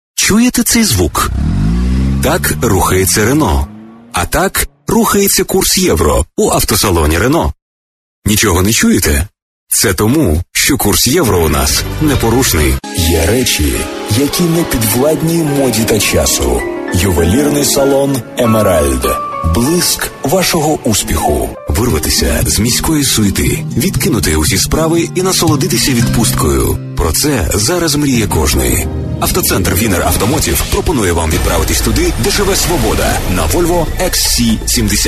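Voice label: ukrainian male commercial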